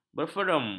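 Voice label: "berferum", Malay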